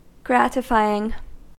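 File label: "gratifying", US English; pronunciations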